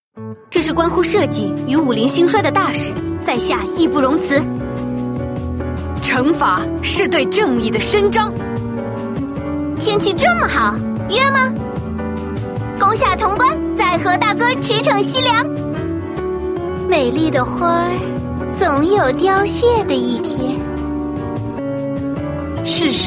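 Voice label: female young woman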